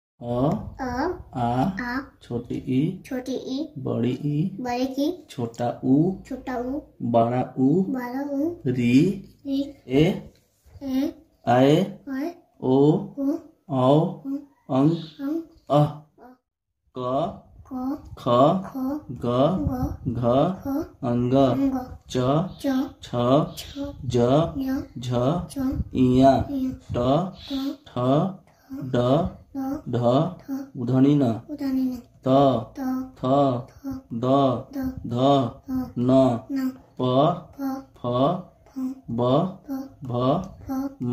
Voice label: Hindi; زبان هندی